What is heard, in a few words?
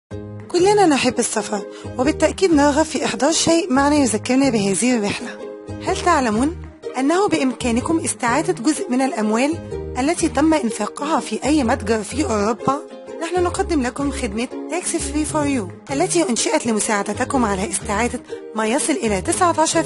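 Arabic, female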